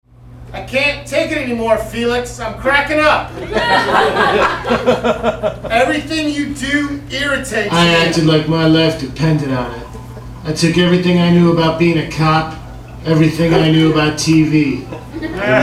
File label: monologue; Actor's Alley; well-known monologue